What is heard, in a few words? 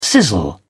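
"sizzle", British English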